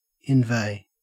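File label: "inveigh", Australian English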